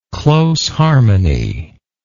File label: "close harmony", English